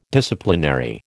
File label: "Disciplinary", American